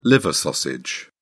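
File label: "liver sausage", British English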